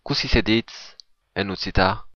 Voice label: how to say, occitan